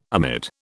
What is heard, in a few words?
"amid", British